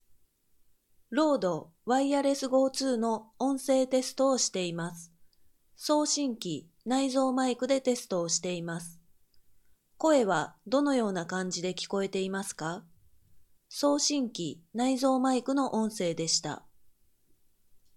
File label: microphone rode wireless go2; microphone-rode-wireless-go2-review